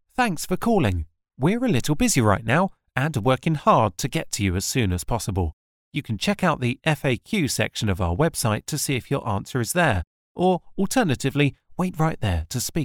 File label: On Hold